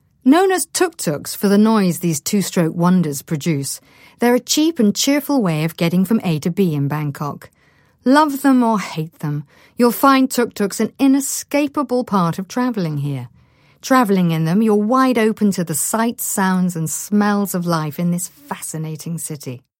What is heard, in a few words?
Documentary Travel